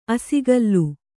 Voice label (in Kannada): "ಅಸಿಗಲ್ಲು", Kannada